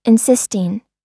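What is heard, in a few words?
"insisting", American English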